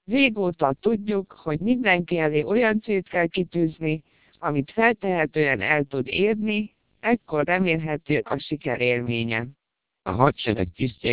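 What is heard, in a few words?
Hungarian speech, TWELP 700 bps vocoder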